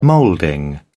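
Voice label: "moulding", British English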